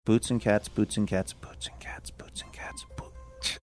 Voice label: quietly saying boots and cats

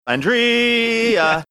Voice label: saying andrea drawn out singsongy

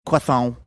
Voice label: saying croissant funny

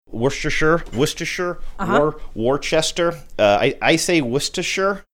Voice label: saying worcestershire several ways

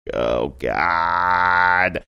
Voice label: drawn out oh god

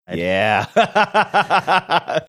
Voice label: saying yeah laughing

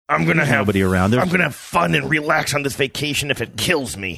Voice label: saying im gonna have fun and relax gruff

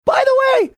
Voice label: saying by the way funny

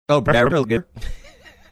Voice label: saying someones name garbled

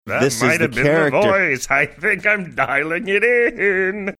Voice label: saying that might've been voice funny

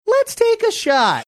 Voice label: saying let's take a shot sing songy

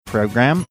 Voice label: "program" funny

